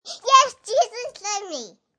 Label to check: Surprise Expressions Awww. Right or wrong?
Wrong